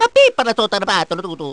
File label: gibberish; mario